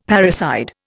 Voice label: "parricide", Indian; Dictionary